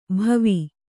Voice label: "ಭವಿ", Kannada

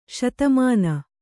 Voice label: "ಸೌದಾಮನಿ", Kannada

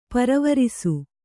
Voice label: "ಪರಿವರಿಸು", kannada

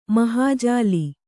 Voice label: "ಮಹಾಜಾಲಿ", Kannada

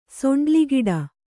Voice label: "ಸೊಂಡ್ಲಿಗಿಡ", Kannada

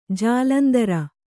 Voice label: "ಜಾಲಂದ್ರ", Kannada

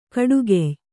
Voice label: "ಖಡಗಾಯಿ", kannada

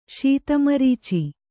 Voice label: "ಶೀತಮರೀಚಿ", Kannada

